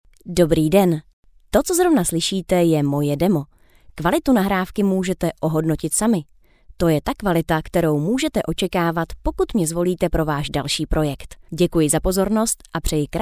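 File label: czech female narration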